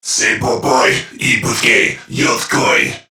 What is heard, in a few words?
Patrol Crewmen, Dialog, Barks; Warframe; CorpusCrewmen; CrewmanA